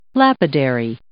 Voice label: "lapidary", English